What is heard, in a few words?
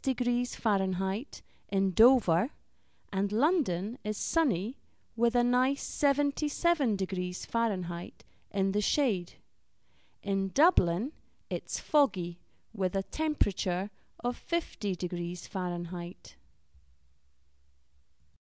weather forecast